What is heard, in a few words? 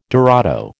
"dorado", American